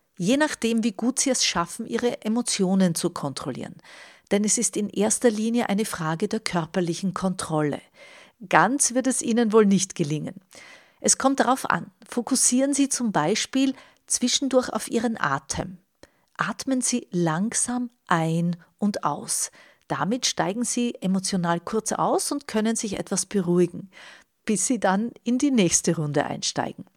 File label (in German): streitstimmen